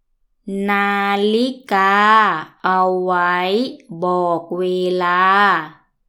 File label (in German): die uhr zum zeitansagen; waii